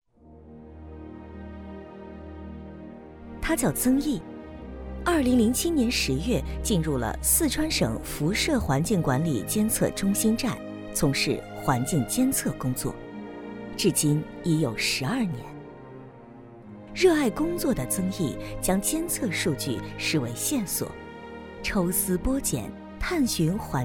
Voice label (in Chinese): 女 专题 环境监测